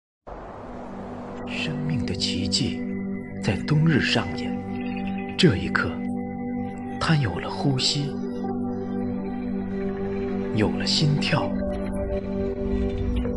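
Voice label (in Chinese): 广告练习, 男声 年轻磁性 风格轻松活泼; 宜家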